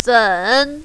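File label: "zen" third tone, mandarin, female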